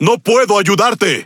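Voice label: Spanish Speech; Quick Commands No Way; World Of Warships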